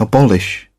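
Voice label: "abolish", British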